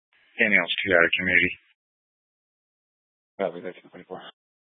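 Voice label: Fire Dispatch, Scanner audio recording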